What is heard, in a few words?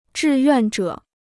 "志愿者", Chinese